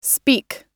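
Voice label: "speak", American English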